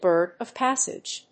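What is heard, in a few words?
"bird of passage", English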